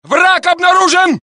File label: Russian; mohaa